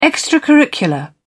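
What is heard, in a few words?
"extracurricular", British English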